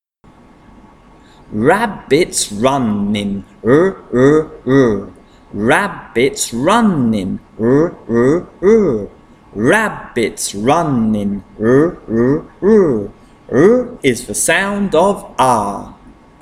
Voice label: Consonant Chant r